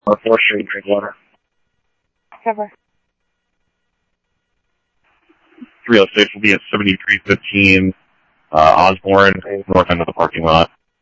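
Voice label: Scottsdale Police Department PD1 Scottsdale Police, scanner audio; H-Scottsdale; SCTSDLE PD - PD1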